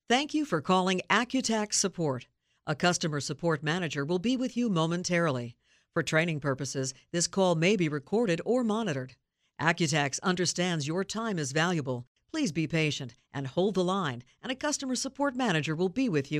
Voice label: IVR ONHOLD